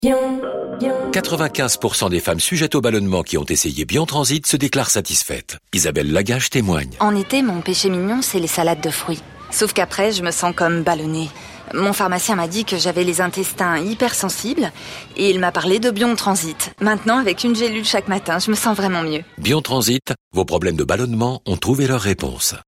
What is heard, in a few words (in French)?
pub naturelle souriante; BION Transit